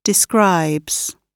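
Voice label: "describes", British English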